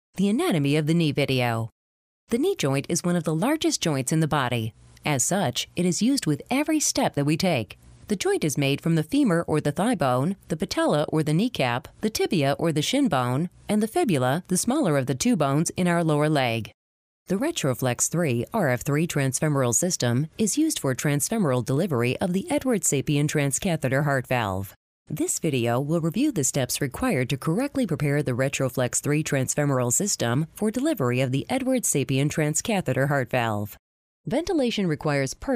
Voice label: Narration Medical Female